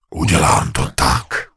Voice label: Gnome Male item locked; Error Messages; wowpreklad; czwow; GnomeMaleErrorMessages